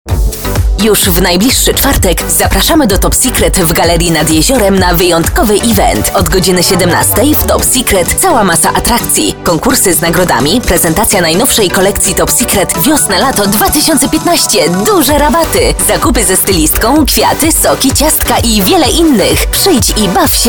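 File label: PL F commercial, Polish, female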